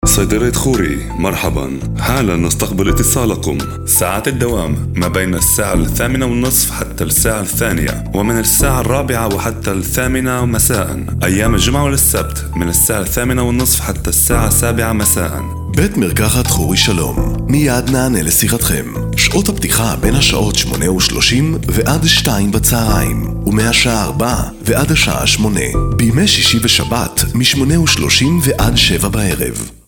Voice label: Arabic Male